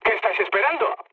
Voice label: attack position, mood med, speech; War Thunder